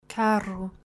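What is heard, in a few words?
"Carro" Trilled, Portuguese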